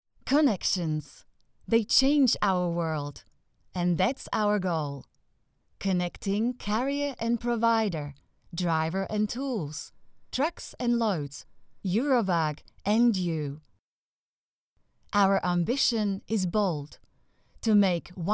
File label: english commercial